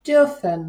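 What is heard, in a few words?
"dürfen", German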